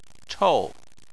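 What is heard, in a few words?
"chou" fourth tone, Chinese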